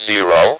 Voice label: "zero", Speak and Spell; NUMBERS